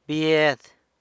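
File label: "bied", aunt, San Lucas Quiaviní Zapotec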